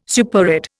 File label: "superadd", Indian